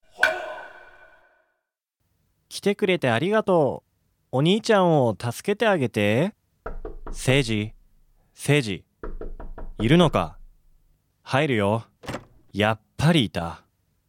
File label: dialog; JapanesePod101; Beginner Season 1